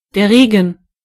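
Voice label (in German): "rain", German; gcompris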